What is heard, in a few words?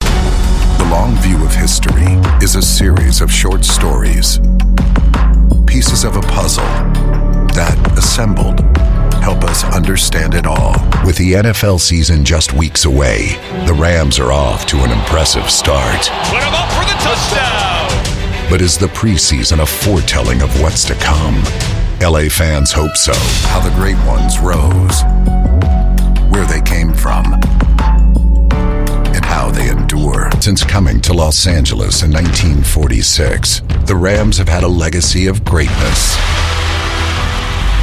US English Sports